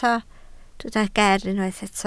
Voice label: "geer", Welsh

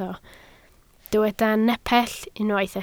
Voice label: "nepell", Welsh

